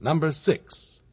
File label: "number six", male; Voice; numbers and letters